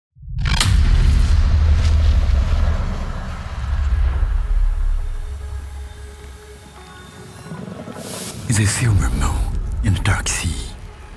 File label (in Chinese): 广告片 品质走心, 男 英文